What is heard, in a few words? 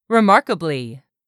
"remarkably", english; 3000 words